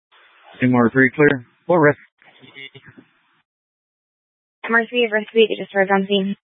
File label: Fire Dispatch